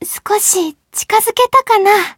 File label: growth jp; mayu